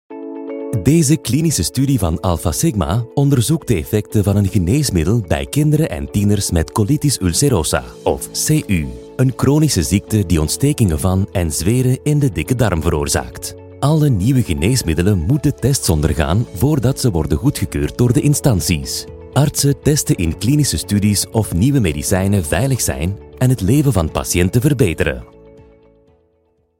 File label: Flemish e-learning